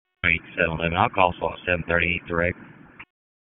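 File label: Sheriff's Office Dispatch 1, p25; wake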